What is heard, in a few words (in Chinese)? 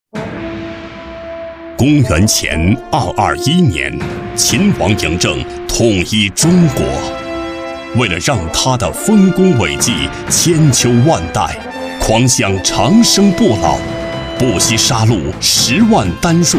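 男国大音-广告; 广告企和春堂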